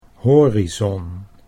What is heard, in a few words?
"horizon", Dutch